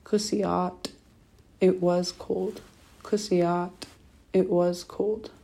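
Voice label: it was cold, Tlingit; past; winter weather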